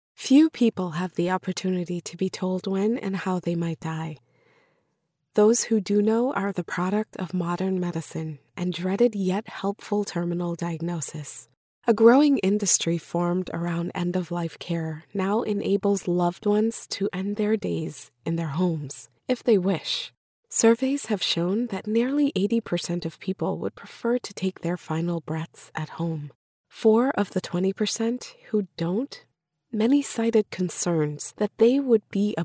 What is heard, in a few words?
Religious Compassionate